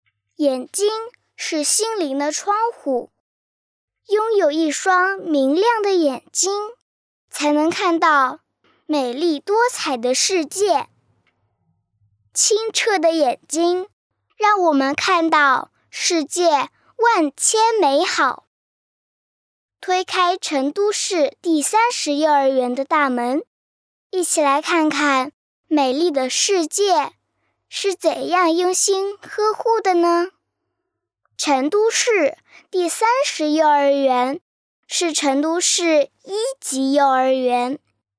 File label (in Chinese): 真童 女孩试音幼儿园; 真童配音